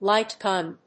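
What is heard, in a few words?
"lightgun", English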